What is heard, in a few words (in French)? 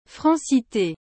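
"francité", French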